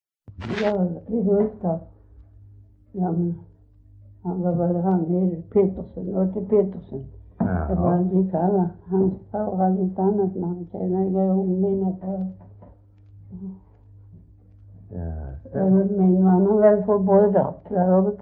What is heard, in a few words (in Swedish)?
S Svedala, dialekter; Folkminnen